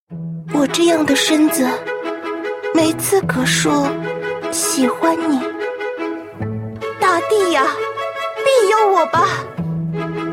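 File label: girl, female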